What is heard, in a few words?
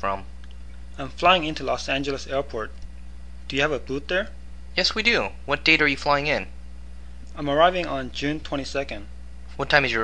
Car Rental for English Learners; travel